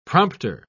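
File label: "prompter", British English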